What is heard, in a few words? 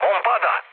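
Serbian aircraft destroyed mood low, speech; War Thunder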